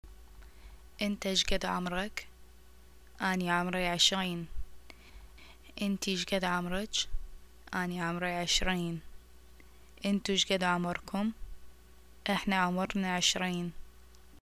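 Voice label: Iraqi how old are you, arabic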